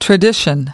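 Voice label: "tradition", English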